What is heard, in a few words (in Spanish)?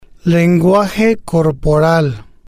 "lenguaje corporal", Spanish